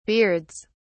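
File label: "beards", English, British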